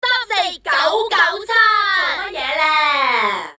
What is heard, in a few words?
asian comic voice